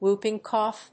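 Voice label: "whooping cough", English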